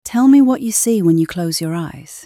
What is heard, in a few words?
voice sample, Sensual and raspy; Charlotte; Shot Studio